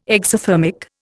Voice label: "exothermic", Indian